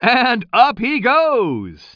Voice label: commentator